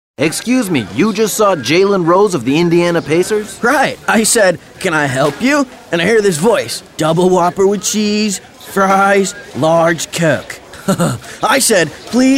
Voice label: Burger Joint Guy